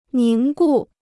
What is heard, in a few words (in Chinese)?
"凝固", Chinese